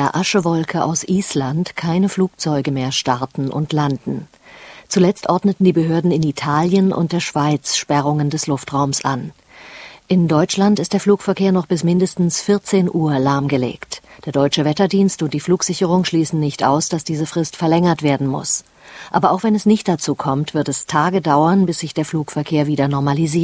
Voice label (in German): nachrichten